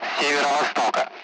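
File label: Speech Sound Russian Common Allied Flight; DCS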